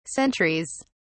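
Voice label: "sentries", British English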